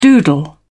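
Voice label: "doodle", British English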